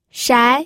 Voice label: "shai2", pinyin

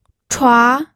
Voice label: "chua2", pinyin

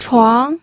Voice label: "chuang2", pinyin

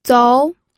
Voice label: "zou2", pinyin

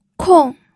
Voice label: "kong4", pinyin

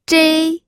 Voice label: "zhei1", pinyin

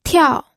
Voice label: "tiao4", pinyin

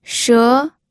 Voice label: "she2", pinyin

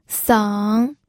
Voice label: "seng3", pinyin

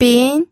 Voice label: "bin2", pinyin

